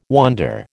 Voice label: "wonder", American

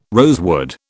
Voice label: "rosewood", British

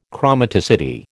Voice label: "chromaticity", American

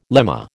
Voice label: "lemma", American

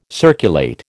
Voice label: "circulate", American

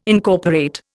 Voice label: "Incorporate", Indian

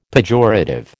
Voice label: "pejorative", American

